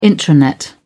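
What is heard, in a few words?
"intranet", English, uk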